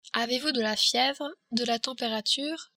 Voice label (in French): temperature, french